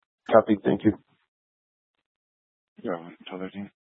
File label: Police Department Dispatch, scanner